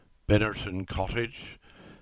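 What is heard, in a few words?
"Binnerton Cottage", English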